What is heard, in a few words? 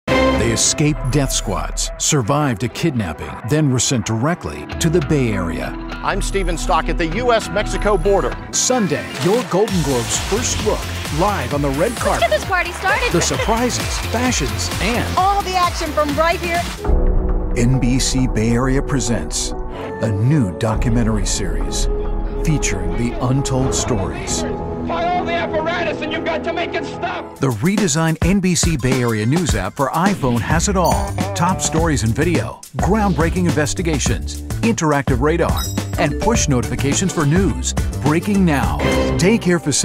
TV Promo; NBC